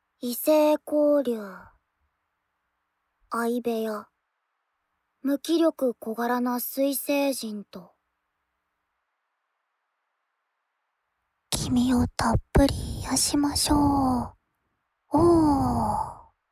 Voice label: タイトルコール

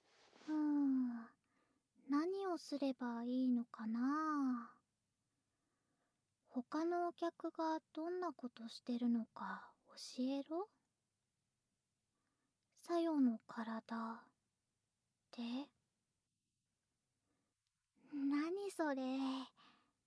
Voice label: 環境音無し, ASMR; 他のお客がどんなことするか教えろ

